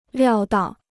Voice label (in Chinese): "料到", Chinese